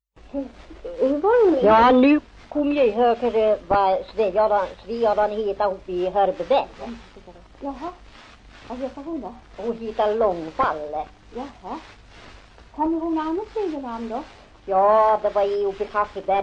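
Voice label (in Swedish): Järvsö Hälsingland, svenska sagor dialekter; Folkminnen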